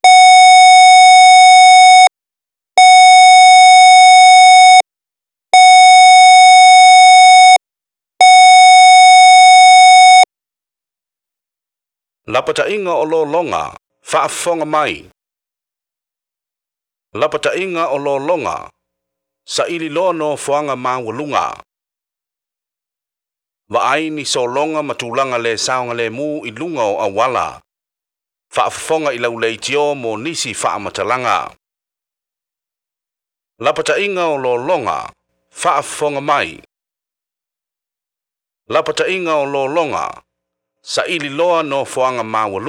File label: SIREN MESSAGE FLOOD WARNING; TEMCO